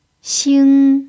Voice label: "xing1", chinese

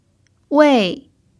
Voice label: "wei4", chinese

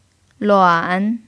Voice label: "luan3", chinese

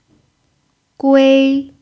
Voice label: "gui1", chinese

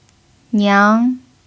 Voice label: "niang2", Chinese

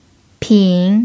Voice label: "pin3", Chinese

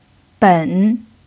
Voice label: "ben3", chinese

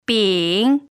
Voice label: "bin3", chinese

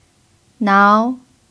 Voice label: "nao2", Chinese

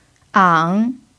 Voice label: "ang3", chinese

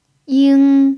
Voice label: "ying1", chinese

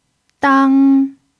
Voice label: "dang1", chinese

